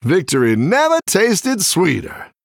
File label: Male Human Combat Win